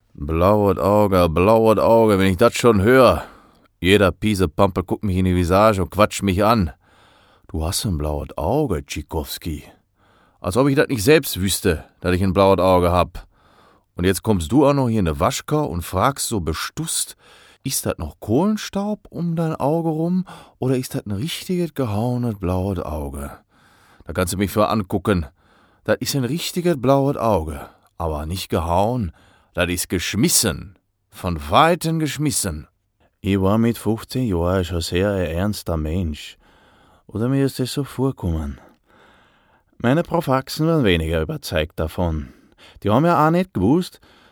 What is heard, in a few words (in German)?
mundart